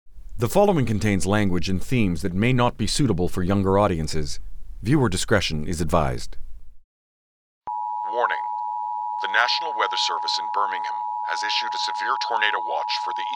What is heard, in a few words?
PSA, Voice Work